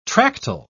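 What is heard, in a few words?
"tractile", British English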